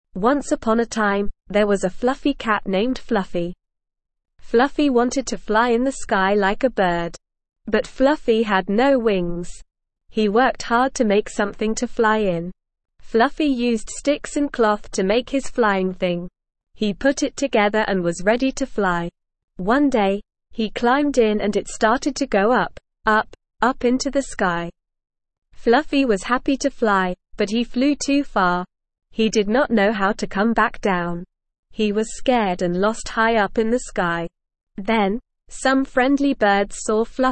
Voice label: ESL Short Stories for Kids Beginner NORMAL Reading; Fluffys Fantastic Flight